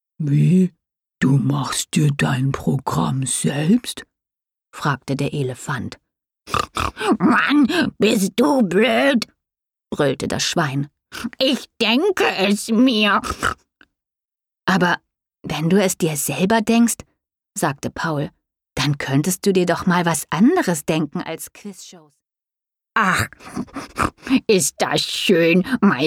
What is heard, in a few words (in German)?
Hoerbuch; Der halbste Held der ganzen Welt